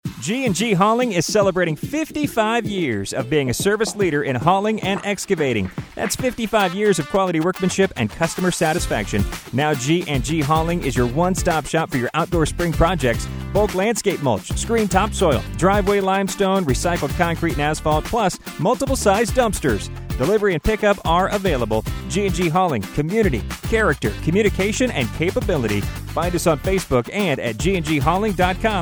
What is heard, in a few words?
Commercial